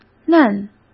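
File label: "嫩", Mandarin Chinese; chinese-flashcards; hsk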